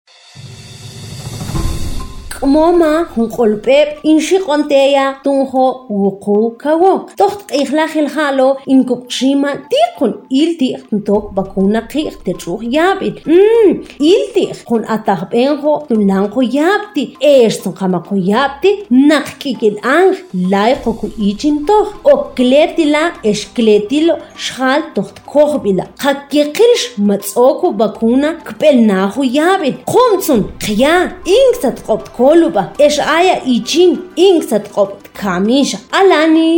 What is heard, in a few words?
SPOT MAM